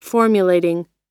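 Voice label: "formulating", American English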